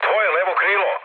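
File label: Serbian left wing mood low, speech; War Thunder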